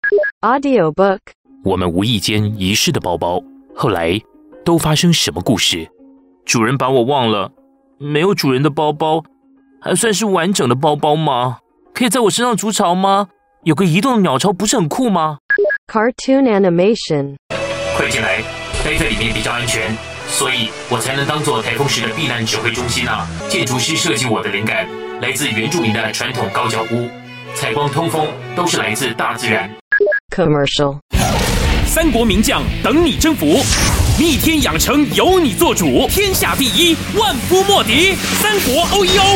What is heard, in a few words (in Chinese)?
國語 男